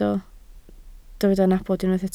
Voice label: "nabod", Welsh